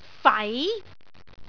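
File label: "fai2", Cantonese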